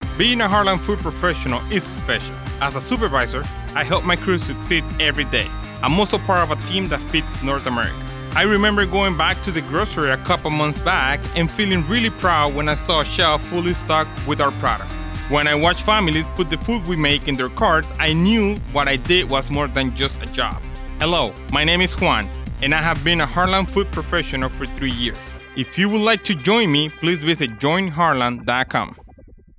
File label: English Ad; Sprint; NAmerica